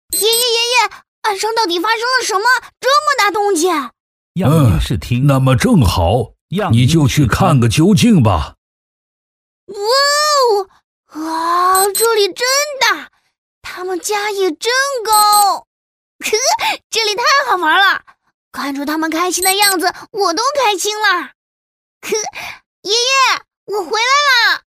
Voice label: 男 女 卡通角色人物 爷爷和小孙子; 纪实记录

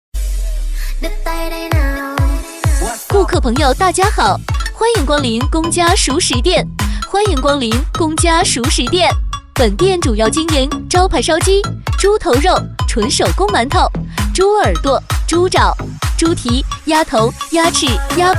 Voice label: 甜美女 熟食卤味店宣传介绍; 激情力度

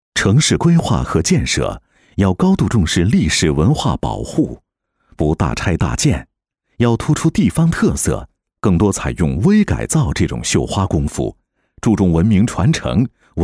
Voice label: 男 城市更新汇报片 磁性厚重, 高端质感 高端大气; 西安城市

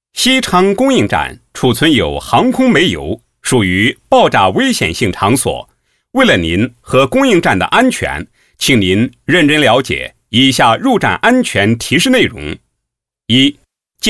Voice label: 男 通告 危险通告; 西昌站危险通告; 成熟稳重 自然诉说

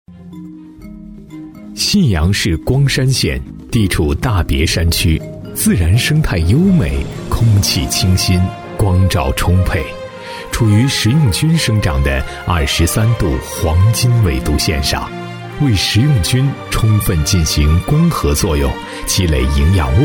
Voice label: 男-舌尖风格 香菇, 浑厚大气 舌尖感

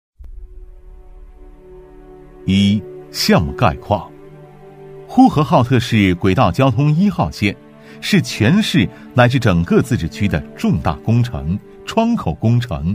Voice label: 男 项目汇报; 呼和浩特市轨道交通一号线; 男B15-稳重磁性

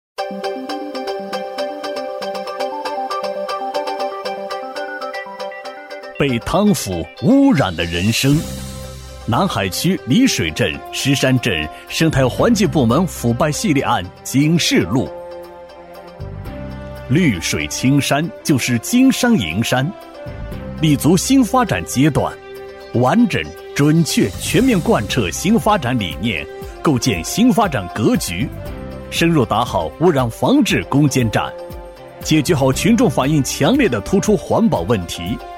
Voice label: 男, 警示片, 大气沉稳; 硬朗力度 大气浑厚; 被贪腐污染的人生

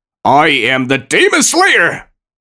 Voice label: 男 英文配音角色; 中英双语 科技感; 手游; 手游英魂之刃 八岐大蛇

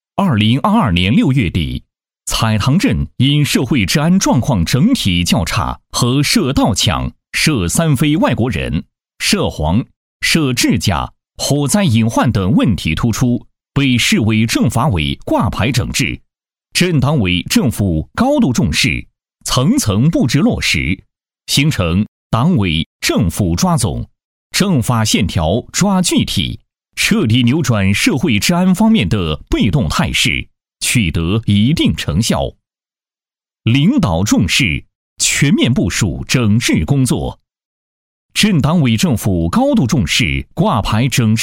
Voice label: 男 总结汇报 正气严肃; 社会治安重点治理地区整治; 正气明亮

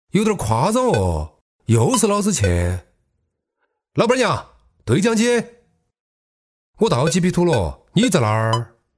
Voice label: 男 角色 青年 四川方言; 中英双语; 轻松活泼; 老子不去

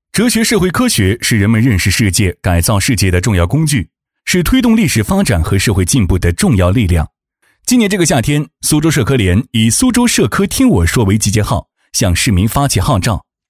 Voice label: 男 科普解说 轻松自然; 激情有力 自然诉说; 苏州社科联

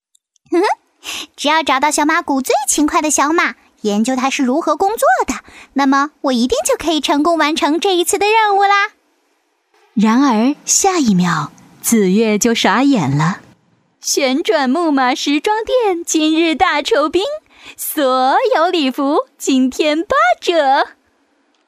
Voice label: 女 卡通角色; 可爱

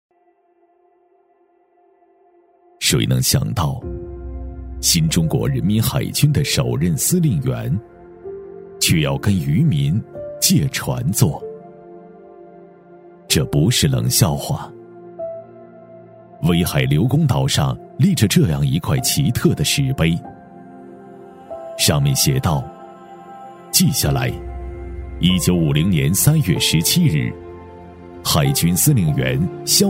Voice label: 男W3-纪录片-历史纪录片, 喊麦激情 大气浑厚

